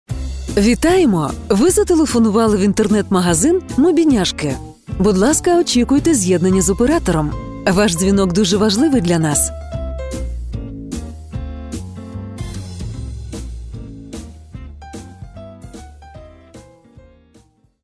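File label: interactive voice response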